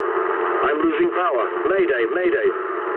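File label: damaged engine mood low, speech English; War Thunder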